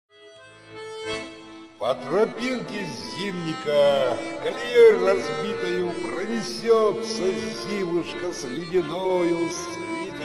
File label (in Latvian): Zimushka melodeklamacija